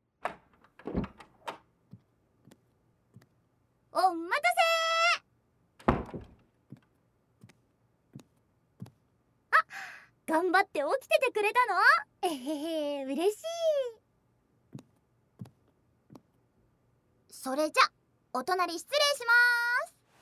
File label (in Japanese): ラブラブささやきボイス♪